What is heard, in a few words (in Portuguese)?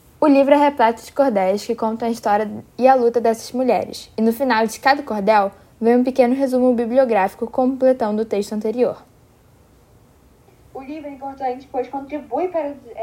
Podcast heroinas negras brasileiras